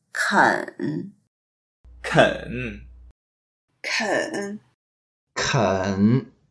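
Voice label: "ken3", Mandarin Chinese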